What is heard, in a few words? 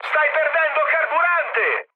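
Italian tank damaged mood high, speech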